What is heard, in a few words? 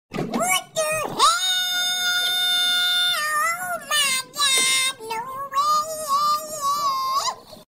what da hell high pitch